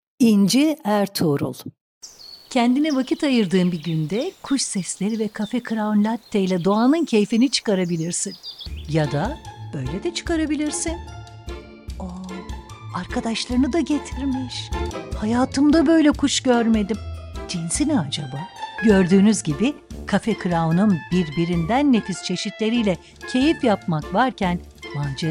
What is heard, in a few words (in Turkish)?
turkce kadin